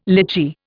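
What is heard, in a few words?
"lychee", Indian English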